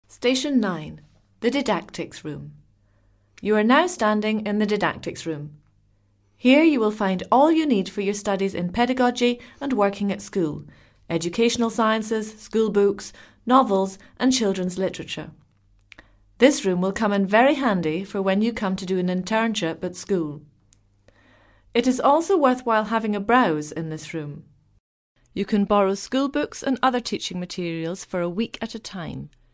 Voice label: audioguide english; bibliothek; station 1